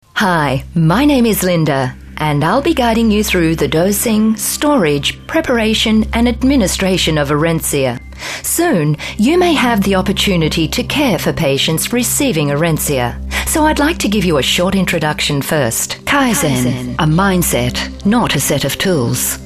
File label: Australian E-Learning